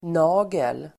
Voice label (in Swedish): "nagel", Swedish